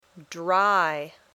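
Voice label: "dry", English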